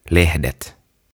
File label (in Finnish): "Lehdet" "Leaves"